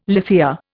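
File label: "lithia", Indian English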